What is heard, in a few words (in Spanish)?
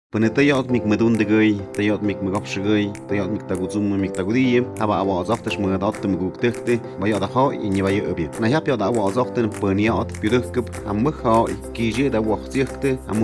Spot mixe; Campanas; CNDH